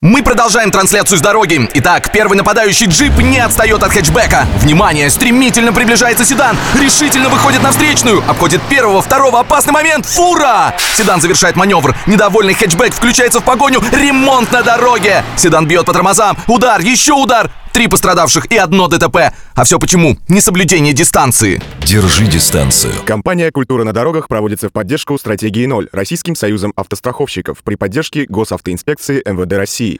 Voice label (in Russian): kommentator